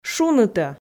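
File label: "шунытӧ", Komi, female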